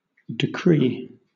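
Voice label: "decree", British English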